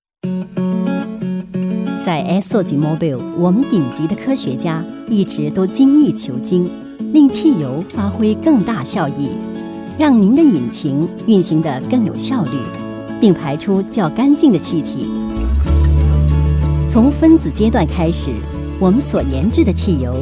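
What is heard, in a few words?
Announcer Mandarin; Esso＆Mobil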